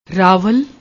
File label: "ravall", Punjabi; ਰਾਵਲ